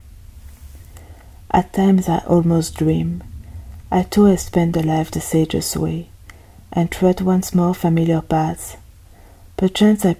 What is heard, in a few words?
Poetry; Paracelsus - Robert Browning